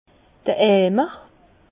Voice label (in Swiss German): "eimer", Bas Rhin; vin; crachoir